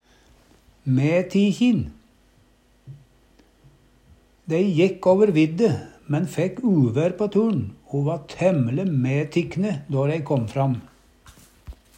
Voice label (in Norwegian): mætikjin, Numedalsmål